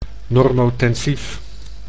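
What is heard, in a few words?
"normotensief", Dutch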